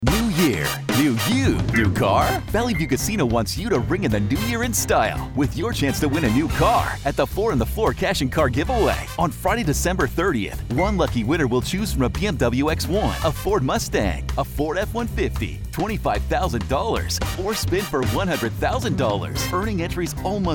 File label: Radio Spot